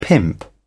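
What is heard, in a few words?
"pimp", British English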